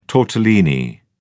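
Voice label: "tortellini", English, British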